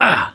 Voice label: hurt minor, survivor voice mechanic

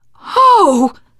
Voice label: reaction disgusted, teengirl; player; survivor; voice

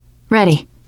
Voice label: answer ready, teen girl

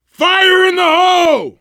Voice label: grenade, survivor voice, biker; Left 4 Dead 2

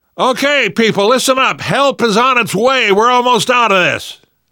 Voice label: radio used generic, namvet, survivor voice; Left 4 Dead 2; left4dead2 dlc2

